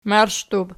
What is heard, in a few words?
"marshdub", Archi